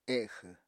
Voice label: "exw", Apatani

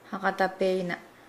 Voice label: "be prepared", Nukuoro

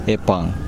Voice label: south, Kosraean

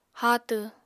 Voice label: "haatw", apatani

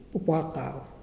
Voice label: "white", Muyuw; Lae Unitech

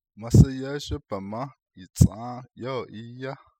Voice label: The kitten got lost from its mother, Navajo

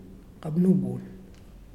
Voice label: Nose, Muyuw; Lae Unitech

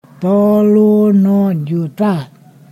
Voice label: "three million", Ponosakan

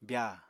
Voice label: "byaa", Apatani

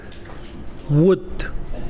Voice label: rain, Mokilese

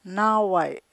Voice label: "naaway'", cheek, Chukchansi

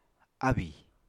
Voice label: "ábì", Apatani

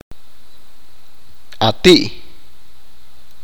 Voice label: father's mother, achi

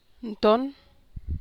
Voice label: "nton", San Lucas Quiaviní Zapotec; nto’onn

